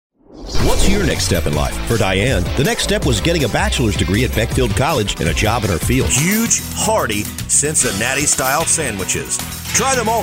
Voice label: Conversational male voice, Natural Speak, Conversational; This Demo Features A Natural Speak Voice With A Conversational, Real-World